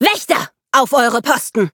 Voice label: battle, de

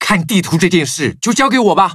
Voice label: upgrade, zh-hant; cookie run

